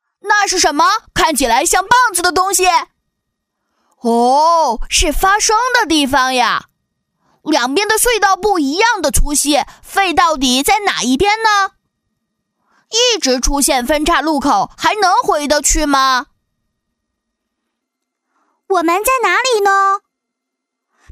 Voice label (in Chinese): 女 童声【可爱男童+女童】